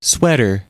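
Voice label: "sweater", American English; pronunciations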